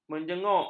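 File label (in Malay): "menjenguk", Malay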